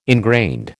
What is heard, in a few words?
"ingrained", American